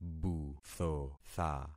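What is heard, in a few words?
"buthotha", concatenated syllables; Affixing experiment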